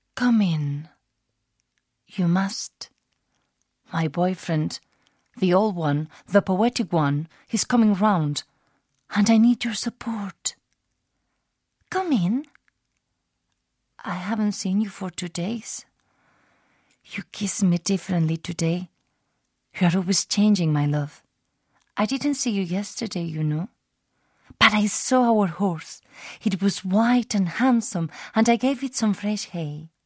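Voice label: Catalan male English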